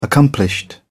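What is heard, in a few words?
"accomplished", British English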